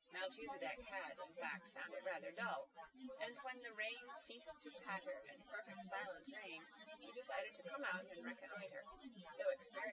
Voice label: female synthconv 130ms 5cm src; SiSEC08; SiSEC underdetermined